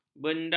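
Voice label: "benda", Malay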